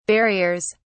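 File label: "barriers", British English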